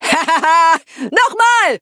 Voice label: victory, de; Cookie Run

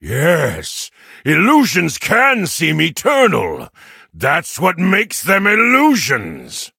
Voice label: levelup, en; cookierunwiki

